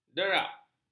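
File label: "derak", Malay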